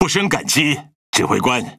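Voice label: Chinese (Taiwan) Speech Quick Commands Thank You Ship; World Of Warships; ZH TW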